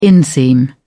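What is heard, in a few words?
"inseam", British English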